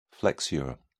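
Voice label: "flexure", British English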